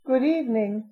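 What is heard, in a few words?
"good evening", English pronunciation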